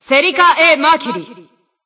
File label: System Voice; BBCP; Bullet